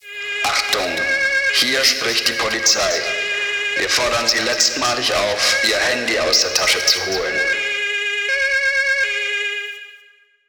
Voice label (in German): Durchsage; Soundeffekte; Klingelton